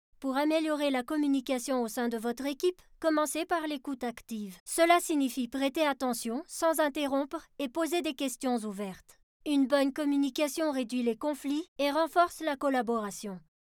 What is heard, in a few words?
E-Learning